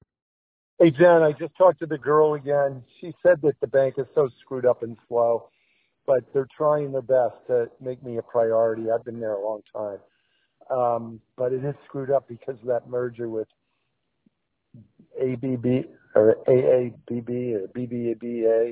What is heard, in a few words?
voicemail